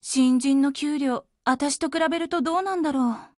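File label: Japanese, Voice; BUILD OVER; GF Alarm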